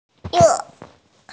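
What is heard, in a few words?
"re4", Mandarin Chinese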